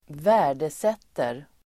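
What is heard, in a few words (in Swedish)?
"värdesätter", Swedish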